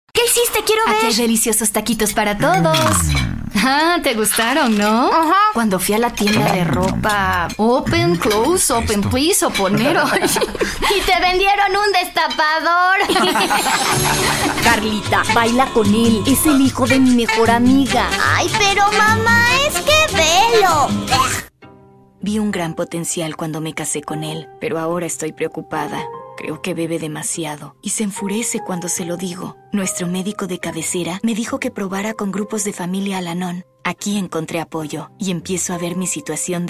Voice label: Characters Spanish Female; Character, International Voiceover, Spanish (Latin and Castillian)